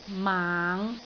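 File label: "maang5", Cantonese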